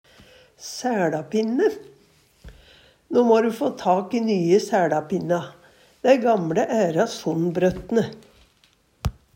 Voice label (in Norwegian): "sae Lapinne", Numedalsmål